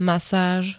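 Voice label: "masáž", Slovak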